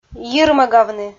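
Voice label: "йирмӧгавны" Komi, female; audio dict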